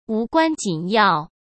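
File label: "无关紧要" Mandarin Chinese; chinese flashcards; hsk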